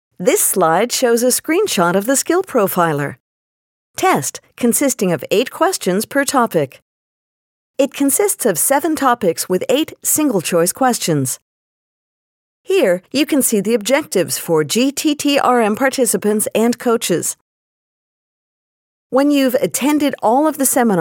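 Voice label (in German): American English, Kommentar Neutral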